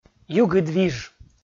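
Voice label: "югыдвиж", Komi, male; kpv audio dict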